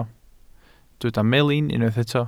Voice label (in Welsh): "melin", Welsh